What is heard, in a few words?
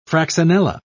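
"fraxinella", British English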